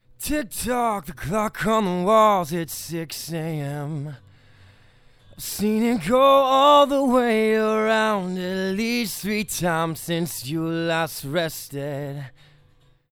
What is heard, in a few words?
Male